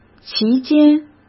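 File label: "其间", Mandarin Chinese; chinese flashcards; hsk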